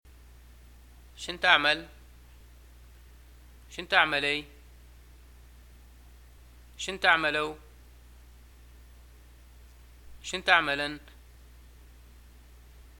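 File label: what do you do, Libyan Arabic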